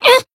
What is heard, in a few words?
damaged jp; kikyou